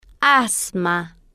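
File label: "asma", Spanish, female, slow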